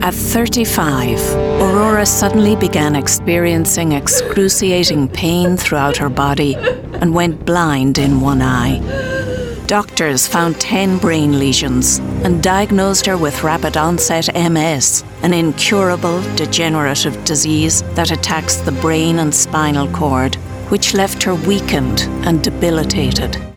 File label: Narration